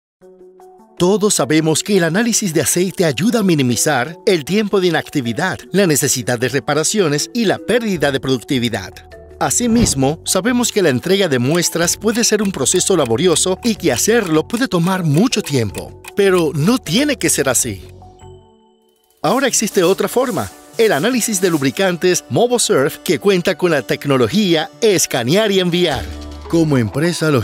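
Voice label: E-Learning Latin American Spanish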